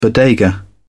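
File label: "bodega", British English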